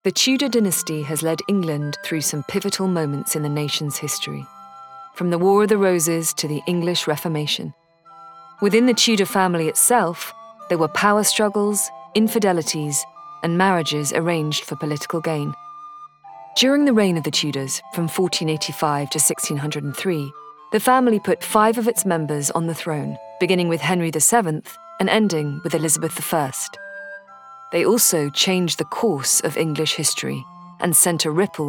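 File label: Documentary Reel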